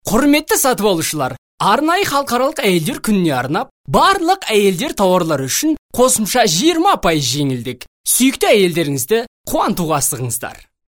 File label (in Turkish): KAZAKÇA